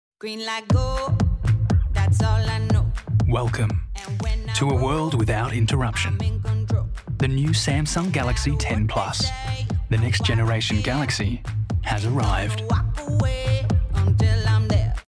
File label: STRAIGHT AND CONFIDENT; SAMSUNG